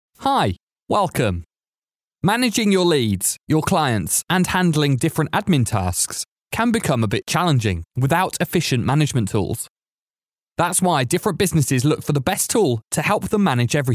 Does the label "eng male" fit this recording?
Yes